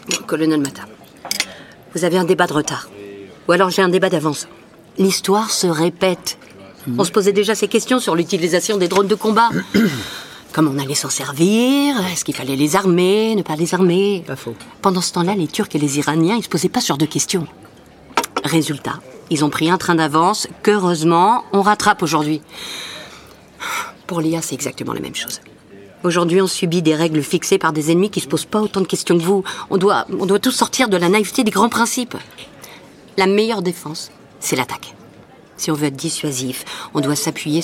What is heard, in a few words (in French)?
podcast; hexagone balard